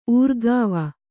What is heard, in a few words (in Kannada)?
"ಊರ್ಗಾವ", kannada